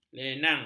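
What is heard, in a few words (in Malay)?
"lenang", Malay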